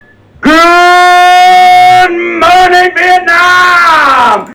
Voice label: omnidirectional; epos; b20